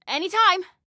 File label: Respond Any Time, Female; Female 02